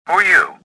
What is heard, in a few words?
suspicious; XBOX; worker